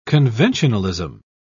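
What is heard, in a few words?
"conventionalism", British English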